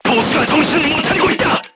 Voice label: voice message gunlayer contused, korean, crew tanks